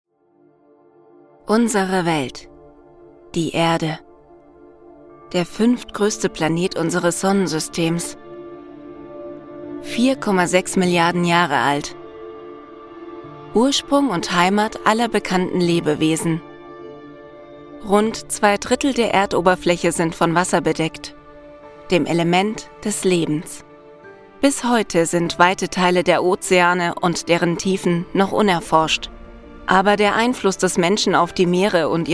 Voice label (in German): Doku Natur